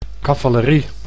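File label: "cavallerie", Dutch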